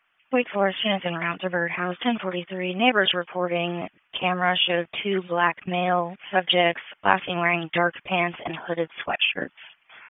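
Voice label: Wake Forest Police Dispatch, p25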